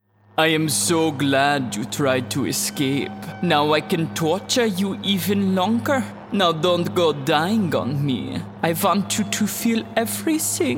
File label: German Villain, voice over